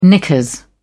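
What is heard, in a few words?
"knickers", English, British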